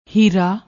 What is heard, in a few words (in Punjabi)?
"hira", Punjabi; ਹੀਰਾ